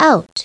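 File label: "out", English; SoftKey; WordBank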